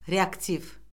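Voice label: "reaktiv", Azerbaijani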